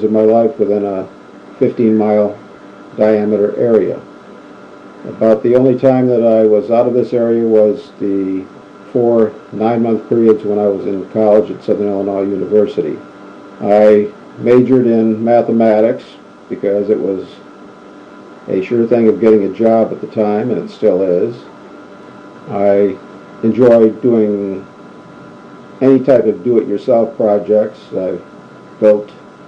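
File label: wisconsin, dialects of English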